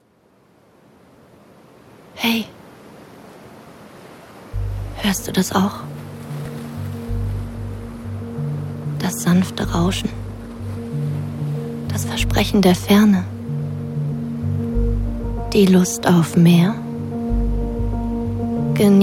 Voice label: Werbung Wellness

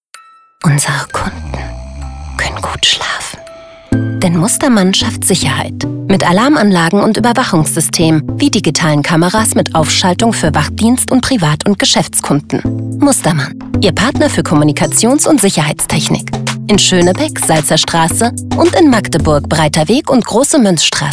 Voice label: Spot Alarmanlagen; LOFT VOICES

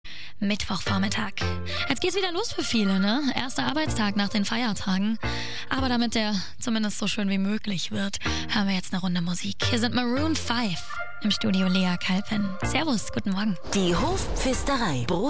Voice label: Radio Hitradio; 95.5 Charivari Münchens

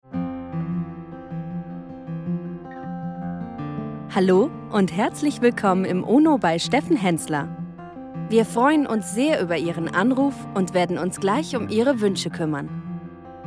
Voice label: Telefonansage Warteschleife; ono warteschleife

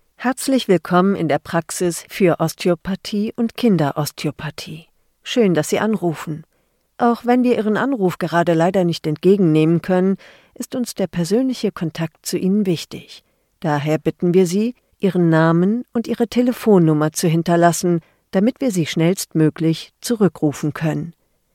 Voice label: Anrufbeantworter

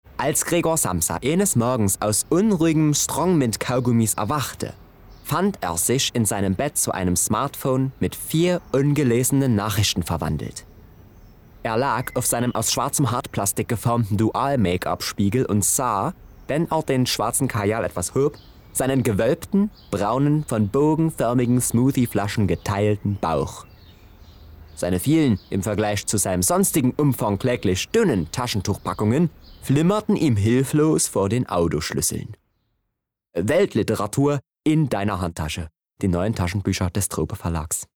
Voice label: Saechsisch; LOFT VOICES

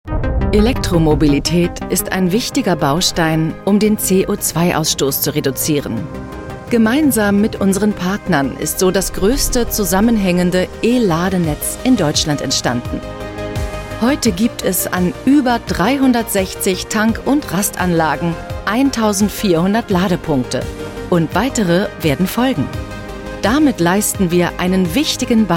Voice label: Imagefilm; Tank und Rast; LOFT VOICES

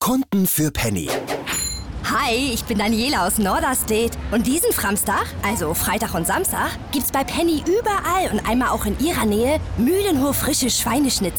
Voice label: off hamburgisch

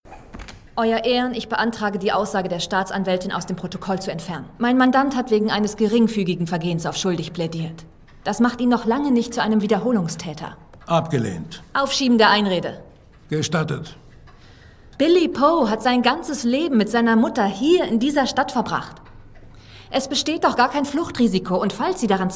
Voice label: Synchron taffe Anwältin Plädoyer; Rachel Walton; American Rust

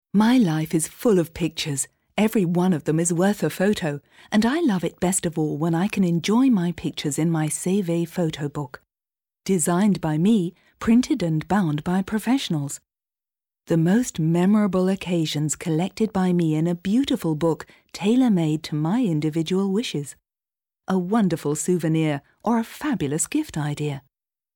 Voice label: uk englisch

